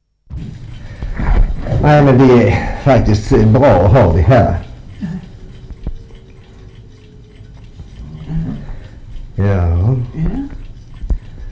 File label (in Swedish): dialekter, svenska sagor; Folkminnen